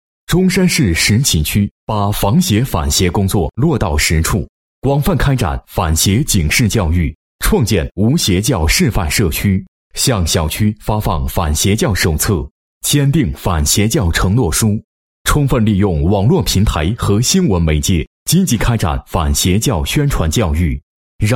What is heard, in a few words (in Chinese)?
男 片头介绍 反邪教微电影走向新生片头字幕, 配音案例